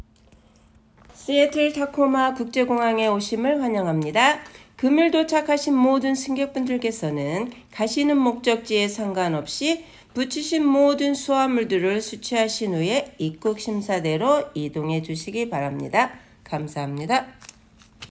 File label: Korean Bags First; seattle; portofseattle; 감사합니다.